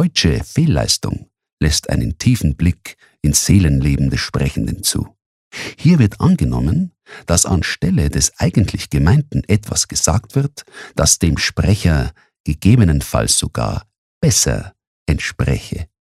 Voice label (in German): Versprecher Neutral Bayerisch